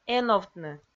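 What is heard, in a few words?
"эновтны", Komi, female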